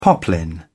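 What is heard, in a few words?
"poplin", British English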